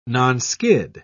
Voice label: "nonskid", en uk

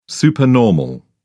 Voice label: "supernormal", en uk

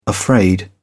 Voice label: "afraid", uk

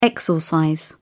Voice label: exorcise, uk

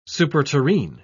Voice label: "superterrene", en uk

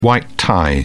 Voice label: "white-tie", uk

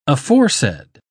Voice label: "aforesaid", uk

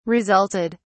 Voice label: resulted, uk

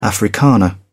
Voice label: "afrikaner", uk

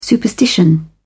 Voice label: "superstition", en uk

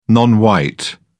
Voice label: "non white", en uk

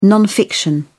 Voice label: "non fiction", en uk

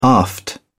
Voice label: "aft", uk

